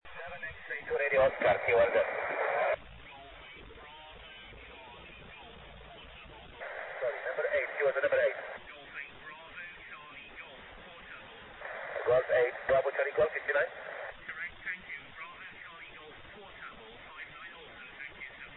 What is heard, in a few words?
qso